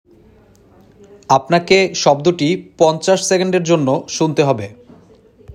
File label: male sound given